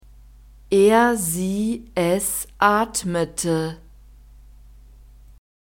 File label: "er sie es atmete", German; verbs past tense regular